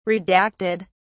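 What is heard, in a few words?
"redacted", English, British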